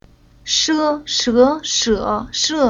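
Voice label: "She", Chinese syllable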